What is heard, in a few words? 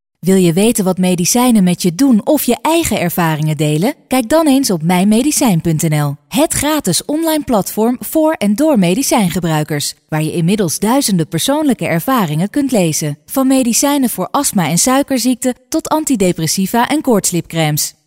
Commercial